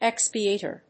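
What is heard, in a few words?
"expiator", English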